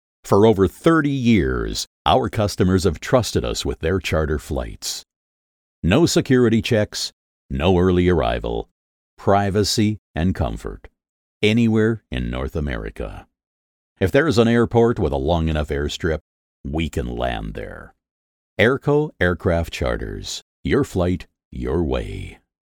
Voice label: english american corporate